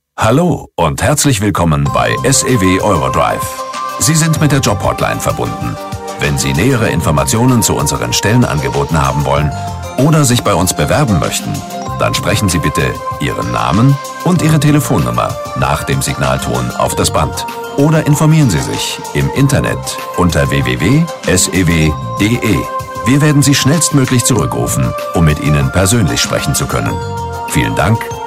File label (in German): telefonansagen sprecher; Hollywood Sprecher am Telefon; harrison ford